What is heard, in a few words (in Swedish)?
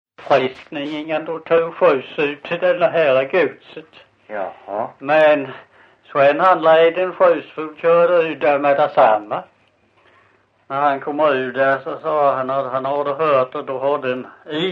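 Visseltofta Skåne, Svenska sagor dialekter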